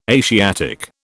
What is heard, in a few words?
"Asiatic", British